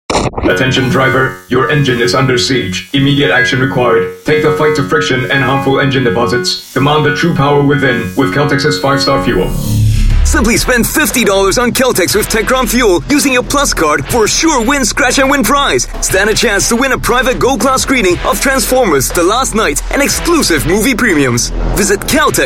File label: Asian English radio; Caltex Transformers